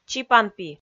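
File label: "чипанпи", Komi-Zyrian, female; kpv audio dict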